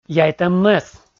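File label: "яйтӧм мӧс", Komi, male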